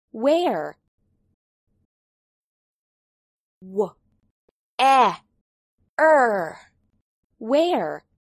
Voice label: "where", English